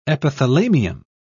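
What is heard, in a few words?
"epithalamium", British English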